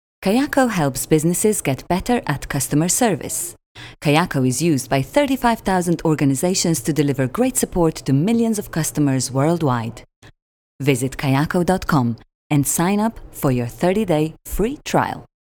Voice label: female slovenian english with slovenian accent corporate; kayako